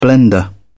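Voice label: "blender", British English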